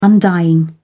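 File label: "undying", British English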